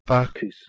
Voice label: Aeolic Greek "pachys"

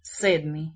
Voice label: "sedmi", Bosnian

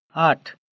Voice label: "8", Gujarati

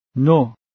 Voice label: "no", Farsi

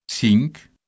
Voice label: "cinc", Occitan

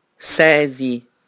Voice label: "6", Sardinian

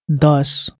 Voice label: "das" Nepali, 10

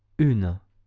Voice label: "uno", Occitan

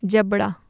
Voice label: "jabra", Urdu

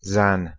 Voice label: "zan", Persian

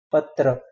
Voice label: "patra", Marathi

